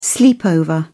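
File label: "sleepover", British English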